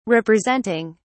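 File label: "representing", British English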